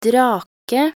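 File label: "drake", Norwegian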